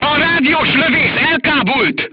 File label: voice message machine gunner contused, hungarian